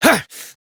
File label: Horse Sprint Adult; Duviri